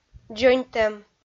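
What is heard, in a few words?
"дзоньтӧм", Komi, female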